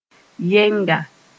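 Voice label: "yɛnga", Mossi; single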